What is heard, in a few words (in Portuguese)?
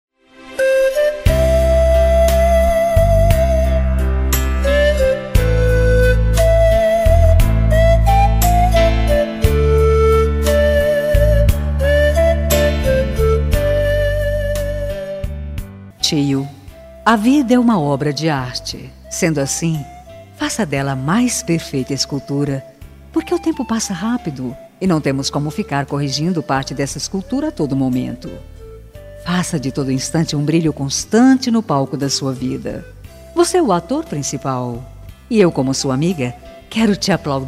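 aniversario TIO FEM, telemensagem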